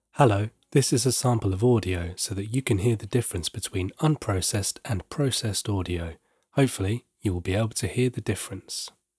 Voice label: Podcast Editing Service Processed Audio Example